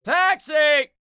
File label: Taxi M, Taxi, male; Talking; VoiceBox